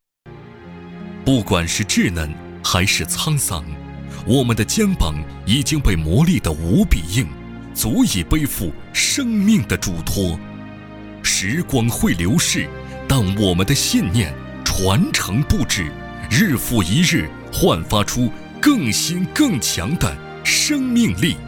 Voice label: 男, 专题, 大气抒情; 坚定信念

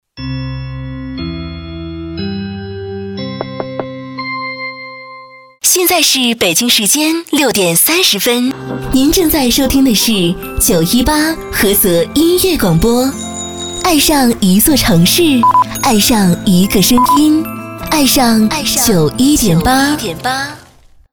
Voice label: 女-广告-台宣

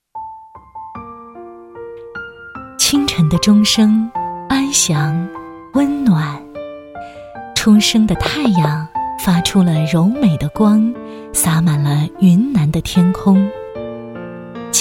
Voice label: 广告 SPA会所介绍

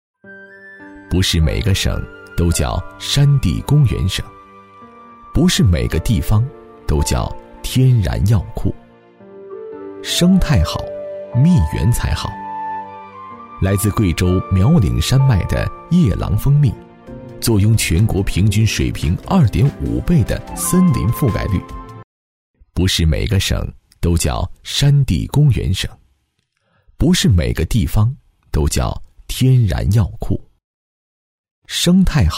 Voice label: 舌尖风格配音 地方宣传配音; 山地公园省

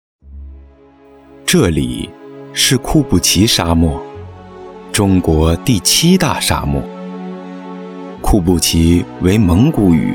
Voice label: 解说; 库布其沙漠

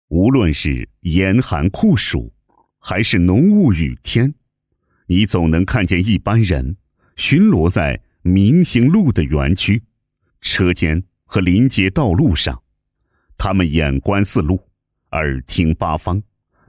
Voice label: 男 专题片 宣传介绍; 安全管理班组

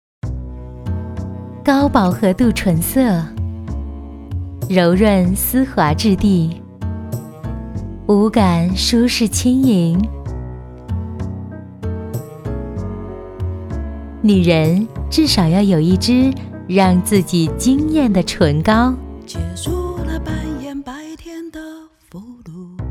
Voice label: 女53 广告 唇膏, 国语女声配音; 原色印象唇膏; 省台女配

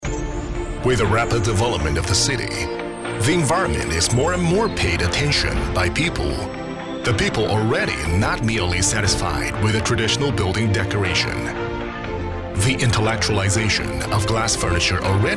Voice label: 英男 专题 大气科技感

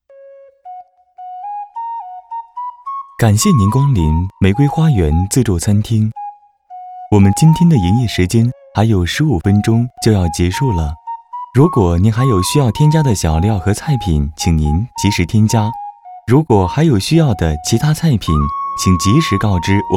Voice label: 广告; 玫瑰花园

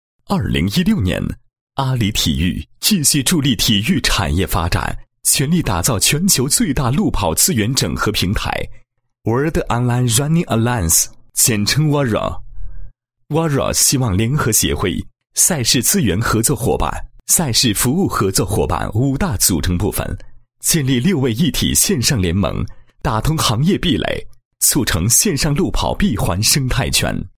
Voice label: 男-产品介绍; 路跑资源整合平台简介

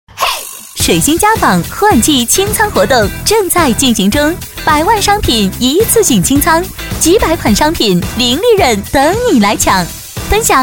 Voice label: 促销 家纺活动, 国语女声配音; 水星家纺

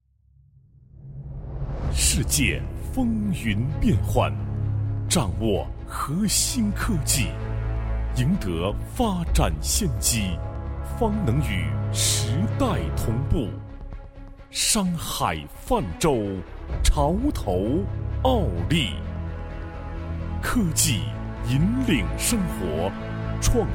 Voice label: 男 专题, 国语男声配音; 凯盈电子科技有限公司

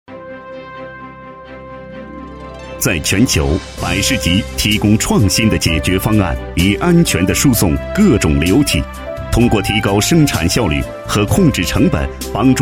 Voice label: 男 专题 宣传介绍, 国语男声配音, 浑厚; 浑厚专题; 百士吉

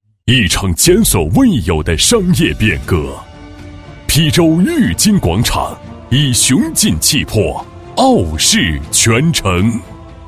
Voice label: 男 房地产专题, 国语男声配音 大气

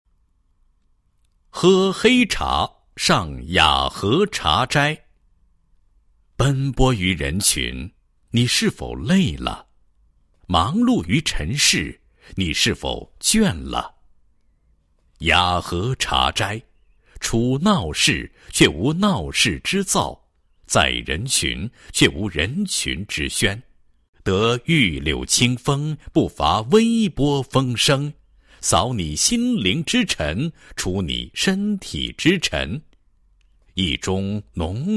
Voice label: 男 茶酒 喝黑茶; 茶庄; 上雅河茶庄

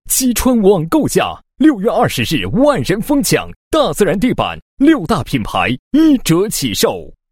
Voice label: 男 力度促销; 国语男声配音; 磁性专题; 大自然地板

